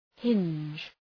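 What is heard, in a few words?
"hinge", English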